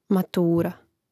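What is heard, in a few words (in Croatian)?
"matura", Croatian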